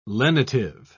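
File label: "lenitive", British English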